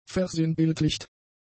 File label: "versinnbildlicht", German; adjectives